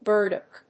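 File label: "burdock", English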